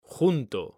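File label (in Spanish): "junto", Spanish, male; mekanta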